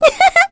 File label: Vox, kr; Cleo; kingsraid